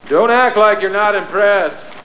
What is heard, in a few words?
not impressed; Anchorman